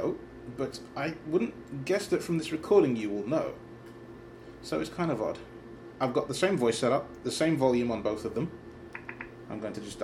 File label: VoiceOver on an iPad2 and iPad4 internal speaker